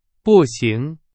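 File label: Chinese; hsk2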